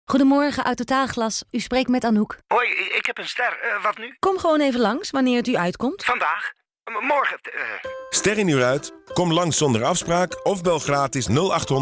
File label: Radiocommercial; Autotaalglas ster